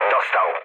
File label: aircraft destroyed mood low, speech pol; War Thunder